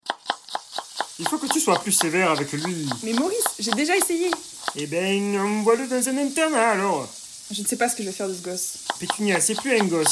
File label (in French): Conversation